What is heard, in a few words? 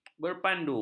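"berpandu", Malay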